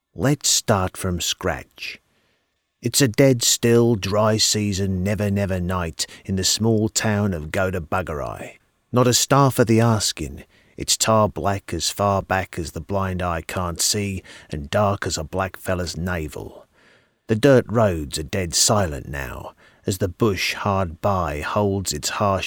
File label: australian